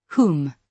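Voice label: "whom", British English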